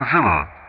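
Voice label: "Zulu", English; Ka-50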